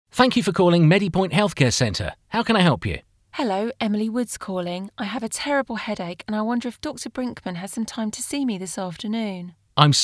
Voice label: telephone